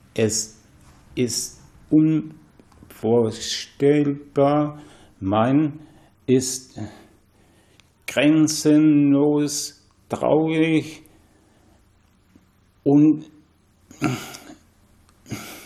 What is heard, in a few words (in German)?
Aphasie